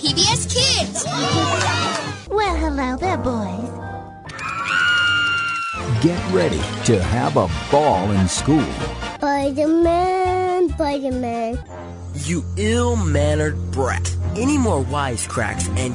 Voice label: voice demo; vtoons